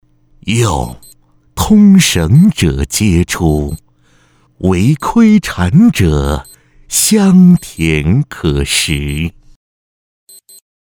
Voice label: 男 老年音广告

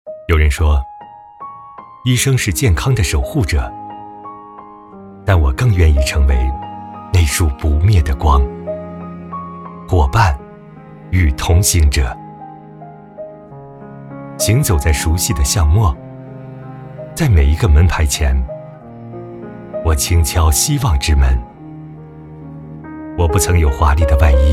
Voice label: 男 走心旁白 我是你的 家庭医生

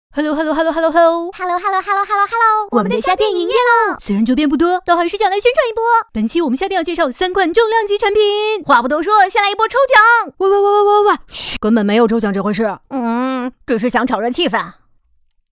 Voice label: 女 童声; 虾米卡通

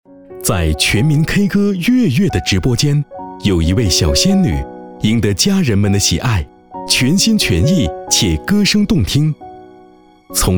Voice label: 男-人物专题

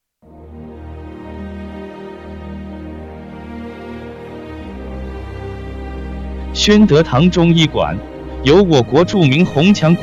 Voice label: 男 中医馆宣传; 某中医馆

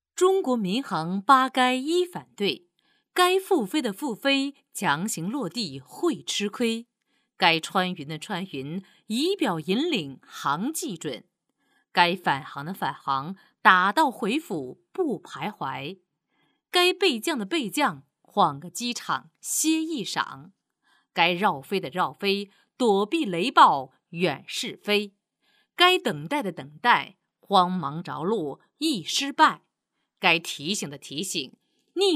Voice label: 女 航空公司 民航八该一反对

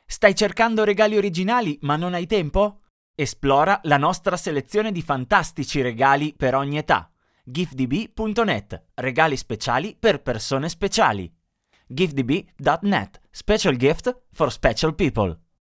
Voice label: 法语男 干音年轻活力

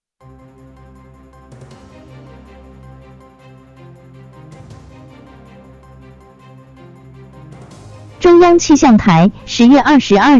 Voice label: 女-暴雨预警

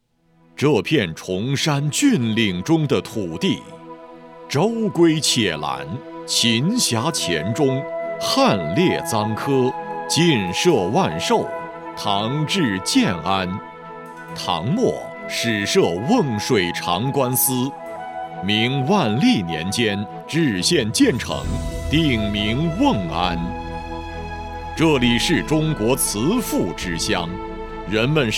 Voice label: 男 中学宣传片; 瓮安中学

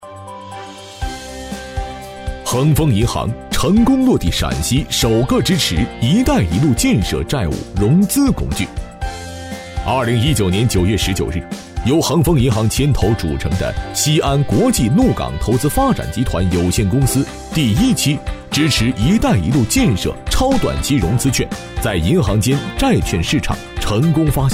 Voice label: 男 银行专题; 支持一带一路建设

